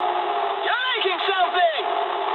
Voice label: eng tank damaged mood med, speech eng; War Thunder

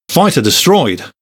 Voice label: Speech Cat Fight Destroyed

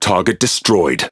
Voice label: Speech Pilots Torpedo Tangodown, EN GB; World Of Warships

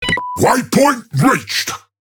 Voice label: Ork Autopilot Checkpoint; World Of Warships; Warhammer 40000

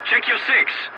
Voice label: voice message check your six, english

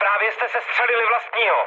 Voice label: player killed ally, speech cze, mood med

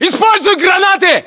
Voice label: order action grenade, ru

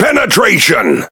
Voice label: Pirate Speech Hit Confirmation; World Of Warships

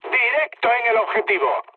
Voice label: bomb success mood med; War Thunder

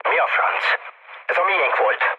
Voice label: player killed ally, mood low, speech hun

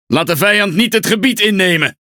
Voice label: NL Speech Quick Commands Ally Point; World Of Warships

